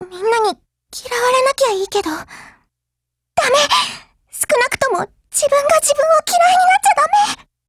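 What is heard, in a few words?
Japanese; StenMK2Mod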